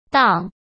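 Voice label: "档", Mandarin Chinese; chinese-flashcards; hsk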